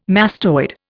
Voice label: "mastoid", Indian